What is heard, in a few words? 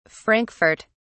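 "frankfurt", English, British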